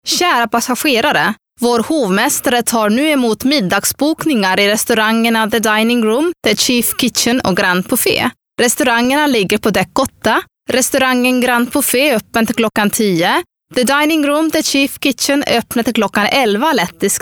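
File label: Voice over women Swedish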